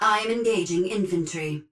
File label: titan Vanguard auto Engage Grunt; Titanfall 2